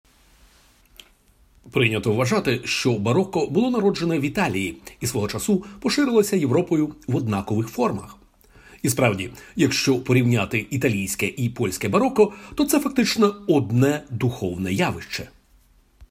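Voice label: UK General, Ukrainian; GoLocalise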